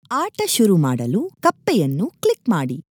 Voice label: Click Frog VO, Kannada